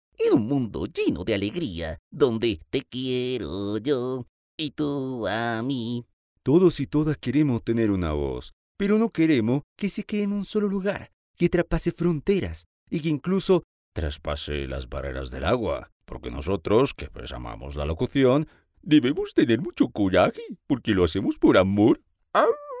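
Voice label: Spanish Latin American, male, spanish americas voice over talent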